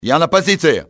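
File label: position reached, russian soldier; XBOX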